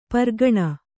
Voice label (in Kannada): "ಪೀರಖಾನಿ", Kannada